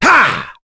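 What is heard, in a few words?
Vox Attack, voices heroes English; kingsraid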